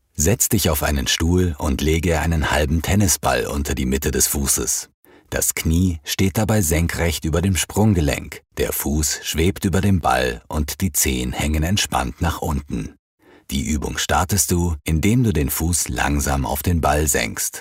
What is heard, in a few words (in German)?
E-Learning Orthopaedie medizinisch